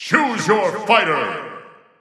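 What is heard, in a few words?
menu narration choose character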